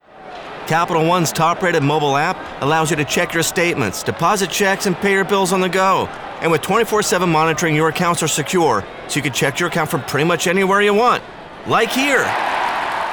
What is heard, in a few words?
Conversational Demo